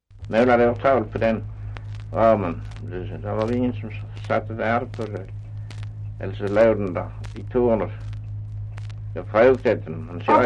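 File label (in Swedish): Östra Ingelstad Skåne, dialekter; Folkminnen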